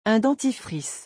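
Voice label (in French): "un dentifrice", French; vocabulaire; le lexique des vacances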